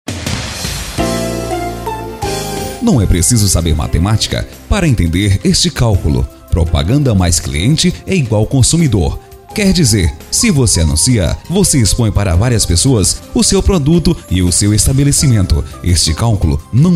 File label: radio spot; radio igapora